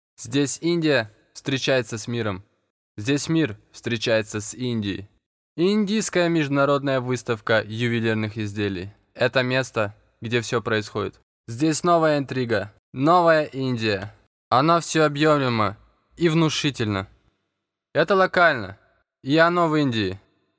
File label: russian